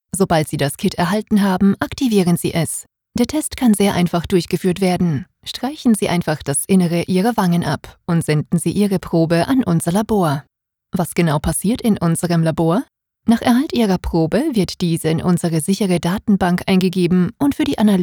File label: German